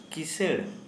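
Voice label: Santali male rich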